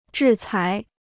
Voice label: "制裁", Chinese

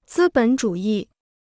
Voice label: "资本主义", Chinese

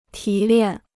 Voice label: "提炼", Chinese

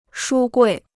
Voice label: "书柜", Chinese

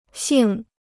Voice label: "姓", Chinese

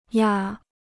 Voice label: "压", Chinese

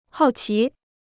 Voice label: "好奇", Chinese

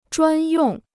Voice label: "专用", Chinese

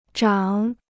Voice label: "涨", Chinese

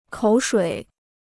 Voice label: "口水", Chinese

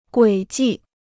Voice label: "轨迹", Chinese

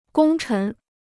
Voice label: "功臣", Chinese

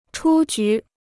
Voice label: "出局", Chinese

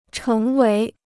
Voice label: "成为", Chinese

